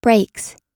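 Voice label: "breaks", American English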